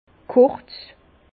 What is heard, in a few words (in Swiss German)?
"kurz", Bas Rhin; vin; court